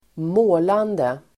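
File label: "målande", Swedish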